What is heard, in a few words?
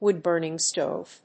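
"Wood-burning stove", English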